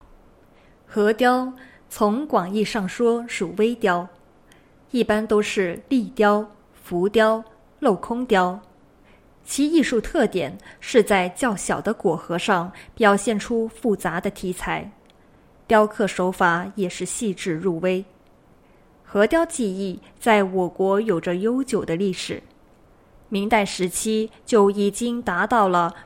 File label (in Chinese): 核雕技艺解说词